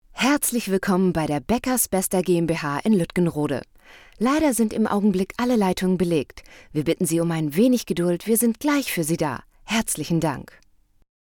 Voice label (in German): DE Anrufbeantworter; Beckers Bester